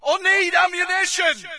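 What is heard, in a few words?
British need ammo; player; source engine; dod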